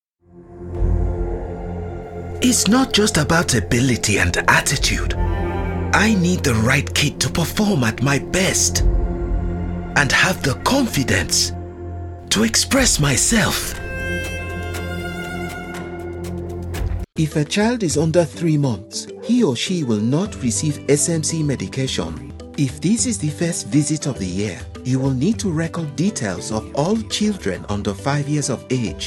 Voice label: African English Voice